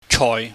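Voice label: "choi", Cantonese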